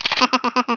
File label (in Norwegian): "collect", Norwegian; Speech; 11khz